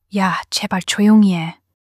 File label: shy